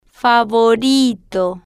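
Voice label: "favorito", slow, Spanish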